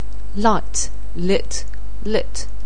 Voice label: "light", anglais